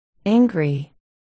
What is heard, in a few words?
angry